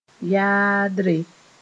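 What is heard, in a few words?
"yaadre", Mooré; Yaadre language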